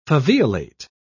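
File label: "faveolate", British English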